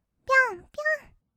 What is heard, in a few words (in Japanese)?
"ぴょんぴょん", kawaii voice; 1200 kawaii voice!!; 色々なワンショット